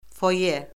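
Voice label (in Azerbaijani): "foye", Azerbaijani; Orfoqrafiya Lüğəti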